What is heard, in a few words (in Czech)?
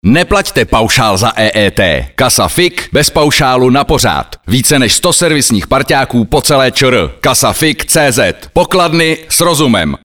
reklama radio; kasa fik